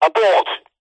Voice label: cb radio male says abort speaker pov